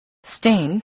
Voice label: "stain", voice

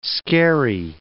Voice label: "scary", English

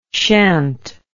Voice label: "shant", words

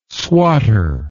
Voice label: "swotter", English word

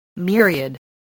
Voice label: "myriad", voice

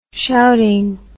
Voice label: "shouting", English

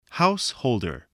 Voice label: "householder", voice spelling

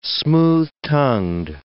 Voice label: "smooth-tongued", english

